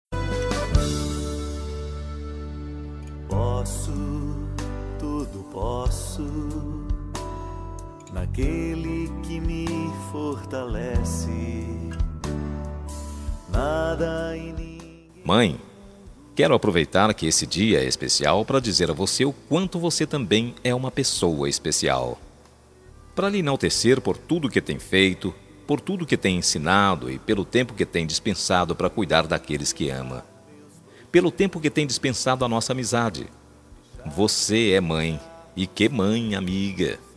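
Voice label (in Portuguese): DIA DAS MAES RELIGIOSOS male, telemensagem; DIA DAS MAES RELIGIOSOS MASC; Padre Fabio de Melo; telemensagem dia das maes diversos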